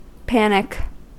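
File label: "panic", American English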